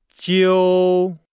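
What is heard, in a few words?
"jiu", Chinese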